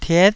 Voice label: "tiet9", taiwanese Syllables